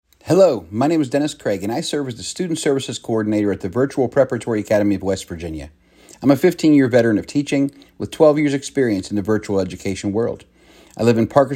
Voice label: Voice Message Welcome